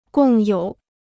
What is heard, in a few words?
"共有", Chinese